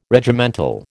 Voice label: "Regimental", American

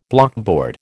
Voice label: "blockboard", American

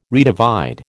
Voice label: "redivide", American

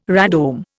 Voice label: "radome", Indian

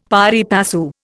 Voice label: "pari passu", Indian; Dictionary

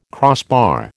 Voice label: "crossbar", American

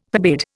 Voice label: "forbade", Indian

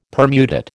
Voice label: "permutate", American

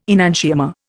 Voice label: "enantiomer", Indian

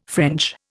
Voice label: "French", Indian

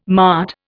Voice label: "mart", Indian

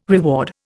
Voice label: "reward", Indian

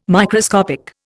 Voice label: "Microscopic", Indian

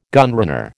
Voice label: "gunrunner", American

